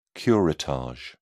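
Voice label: "curettage", British English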